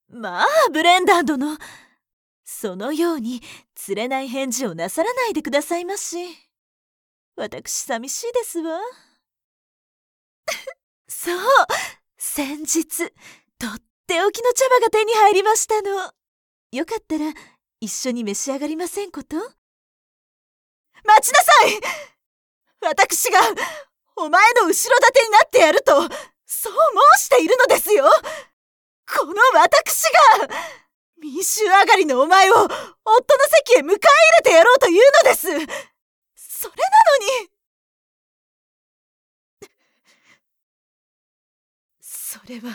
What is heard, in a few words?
elegant